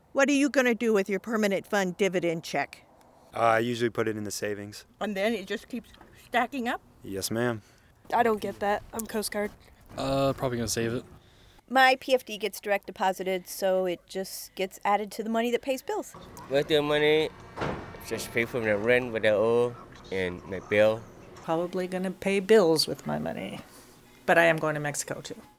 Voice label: VOX POP